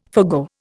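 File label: "forgo", Indian English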